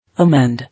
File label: "Emend", English